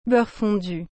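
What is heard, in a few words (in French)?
"beurre fondu", French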